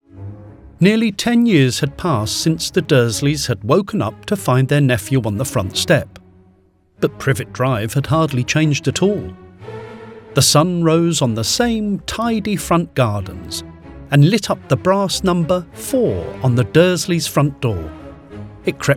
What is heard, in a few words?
narration